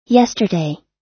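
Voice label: "yesterday", US English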